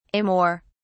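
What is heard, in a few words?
"amor", English, British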